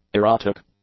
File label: "erotic", English; adjectives